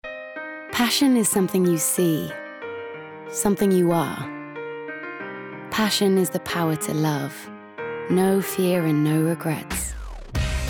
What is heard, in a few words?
English South African Soft Sell; BMW